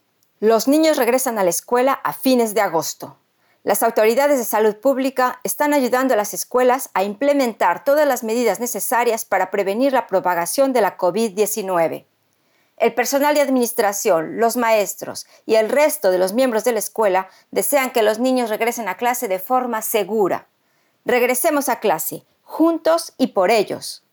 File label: Message Espagnol